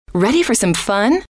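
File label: ready for some fun, female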